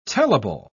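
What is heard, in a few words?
"tellable", British English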